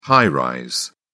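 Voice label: "high-rise", English, British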